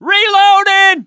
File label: reload intense, voice, mechanic